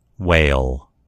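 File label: "whale", American English; gcompris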